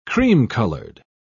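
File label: "cream-colored", English, British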